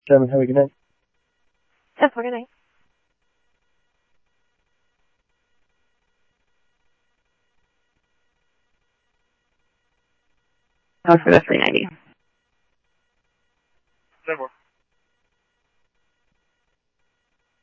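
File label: police radio scanner traffic, Mesa Simulcast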